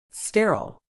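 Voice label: "sterile", British English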